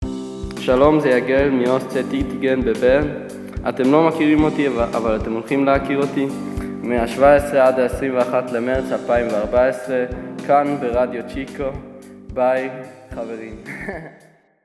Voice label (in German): HEBRÄISCH; Schule OSZ Ittigen; loly lokalfernsehen lyss